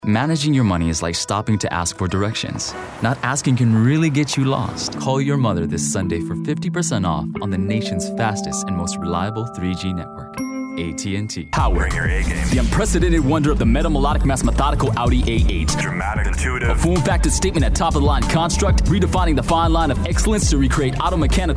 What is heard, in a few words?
Commercial